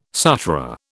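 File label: "Sutra", British English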